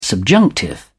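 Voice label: "subjunctive", British English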